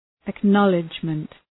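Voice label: "acknowledgement", English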